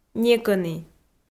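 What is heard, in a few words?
"некӧні", Komi-Zyrian, female; kpv audio dict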